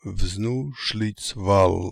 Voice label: "Vznooshlitzval", pronounciations; Fourth Way; Beelzebub